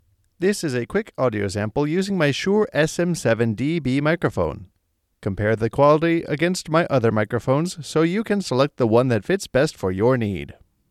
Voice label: SM7dB sample, microphone